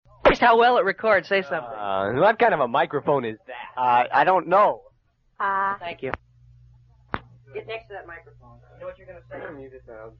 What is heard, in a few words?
Testing A New Microphone